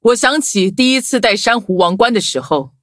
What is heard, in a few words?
levelup zh-hant; cookie run